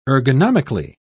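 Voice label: "ergonomically", British English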